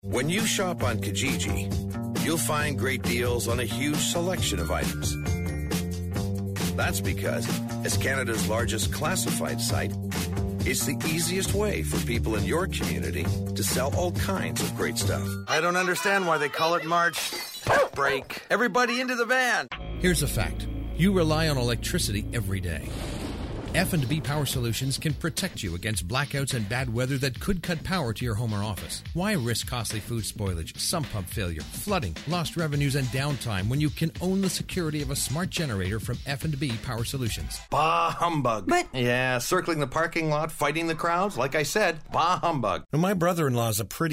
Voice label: Commercial Voice